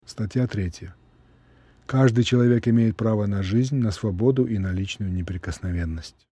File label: Russian